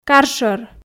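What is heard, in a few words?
"кар шӧр", Komi, female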